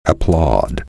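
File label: "applaud", English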